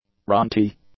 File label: "runty", English; adjectives